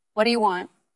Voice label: VoiceActing es

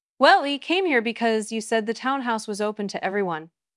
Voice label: Voice Acting es

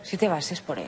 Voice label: Voice Acting es F

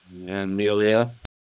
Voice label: Voice Acting, es, M

